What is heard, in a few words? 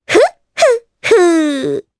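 Vox Happy Japanese; Requina; kingsraid audio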